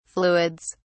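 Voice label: "fluids", British English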